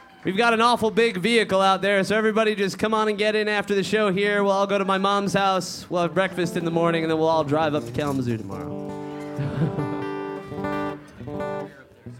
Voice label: string changing banter